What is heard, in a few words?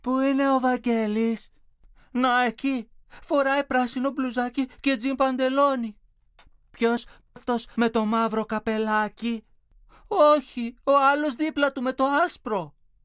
dialog